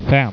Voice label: "fem", Swedish